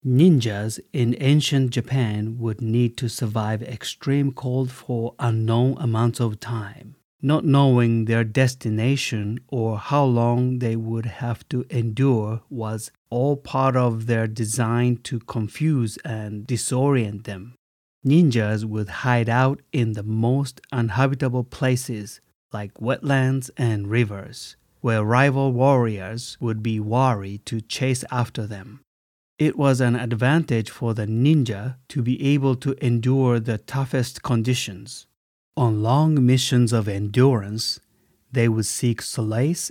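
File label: Japanese, male, accented English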